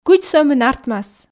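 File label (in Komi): кыдз сӧмын артмас, Komi, female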